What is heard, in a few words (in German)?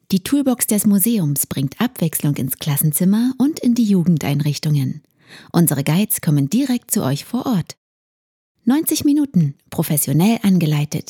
Werbung - Museum, Sprecherin